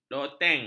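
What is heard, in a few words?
"loteng", Malay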